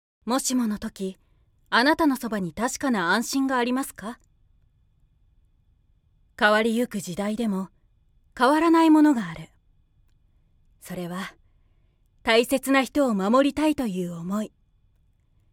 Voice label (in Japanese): 企業VP(ブランディング)